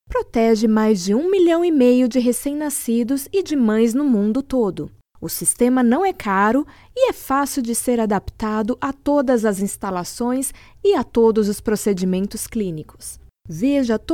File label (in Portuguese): Portuguese Brazil